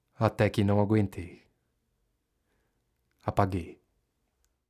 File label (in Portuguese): NARRACAO; meu tio lobisomem